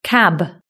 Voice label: "cab", british english